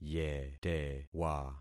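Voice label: "yetewa", concatenated syllables; Affixing experiment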